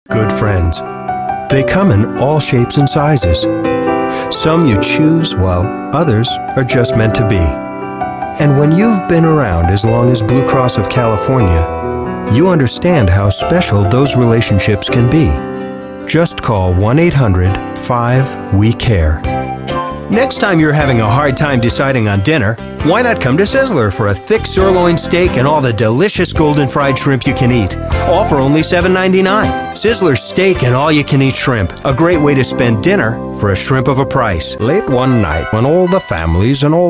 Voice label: Commercial; Agent